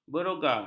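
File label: "beroga", Malay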